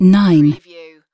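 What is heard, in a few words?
"9", British female; lynne publishing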